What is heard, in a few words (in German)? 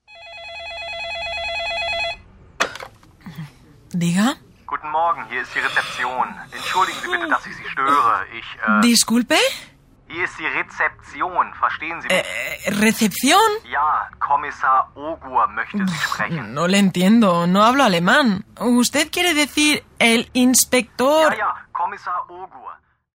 spanisch hoerspiel; mission-berlin